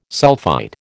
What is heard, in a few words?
"sulphite", American